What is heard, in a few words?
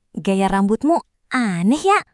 indonesian phrases